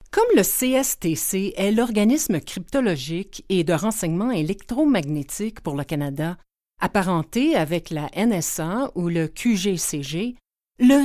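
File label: French Canadian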